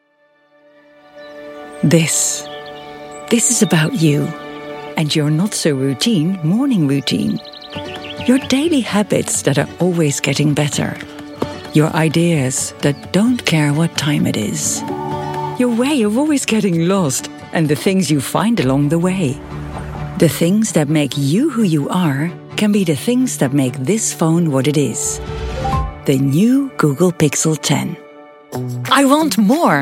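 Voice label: dutch female commercial english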